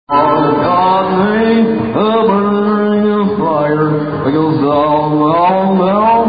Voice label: Johnny Cash Impersonator Impressionist Comedy; Corporate Entertainment